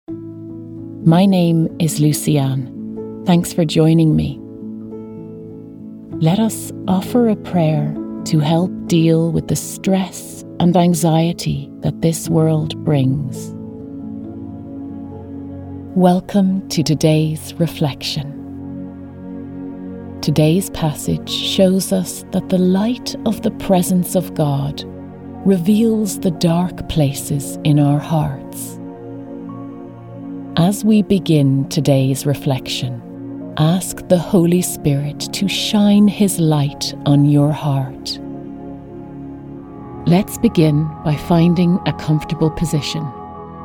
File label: Meditation